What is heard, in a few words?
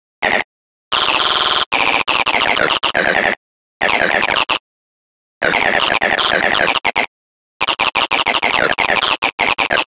Little talking character; TRUTH-HZ; Crowdsourced Sample Pack; Digital FX